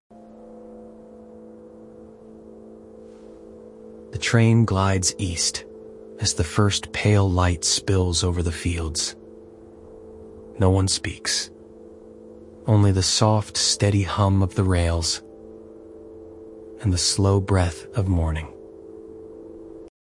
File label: audiobook calm narration